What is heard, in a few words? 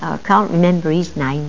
Old Woman; Worms DC Samples